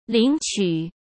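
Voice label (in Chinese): "领取", Mandarin Chinese; hsk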